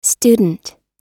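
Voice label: "student", American English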